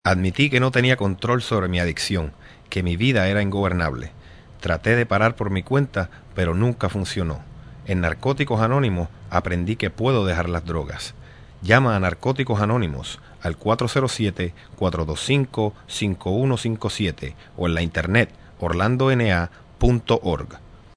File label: Male Spanish